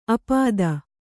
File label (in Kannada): "ಆಪಾತ", kannada